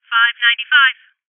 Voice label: Voices Triangulation Female